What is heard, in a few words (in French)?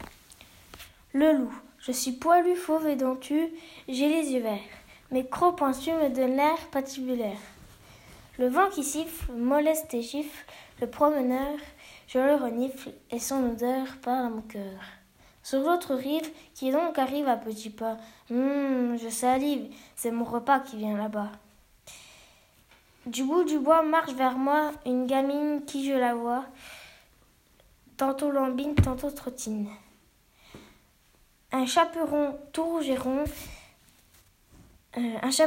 poésie enregistrement vocal